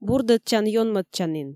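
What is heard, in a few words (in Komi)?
бурдӧдчан-ёнмӧдчанін, Komi, female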